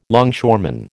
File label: "longshoreman", American